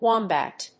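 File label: "wombat", English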